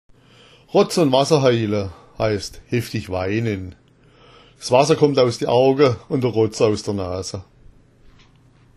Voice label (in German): rotz ond wasser, schwaebisch